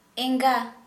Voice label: Santali female female